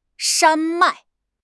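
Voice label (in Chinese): "山脉", Chinese; HSK6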